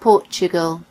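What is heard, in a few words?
"portugal", British English; gcompris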